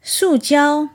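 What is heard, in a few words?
"sujiao", plastic, Chinese; 塑胶; Earth Day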